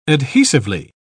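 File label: "adhesively", British English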